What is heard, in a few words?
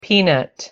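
"peanut", English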